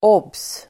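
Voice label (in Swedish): "obs", Swedish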